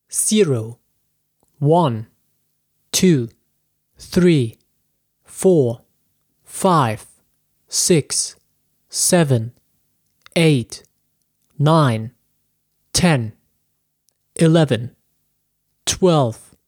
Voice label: Counting Numbers Normal Voice 0 12